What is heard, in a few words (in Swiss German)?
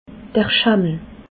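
"schammel", Alsatian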